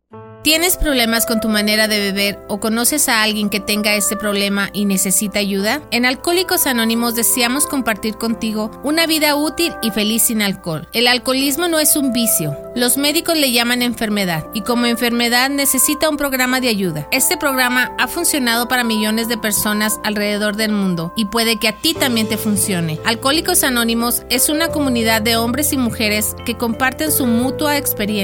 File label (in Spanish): radio Anuncio; IP radio Suavecita